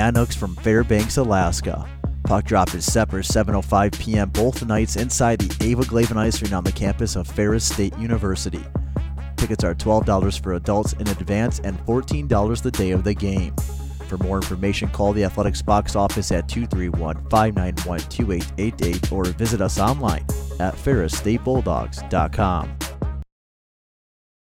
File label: Hockey Commercial; Alaska